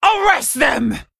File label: Female Worgen Attack